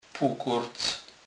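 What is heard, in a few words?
"pukurc", Polish, Kurpie dialect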